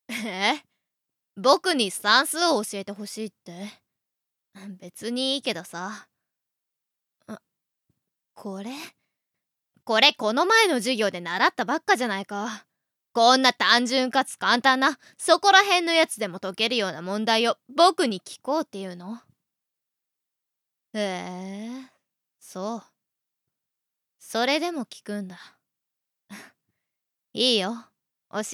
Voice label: boy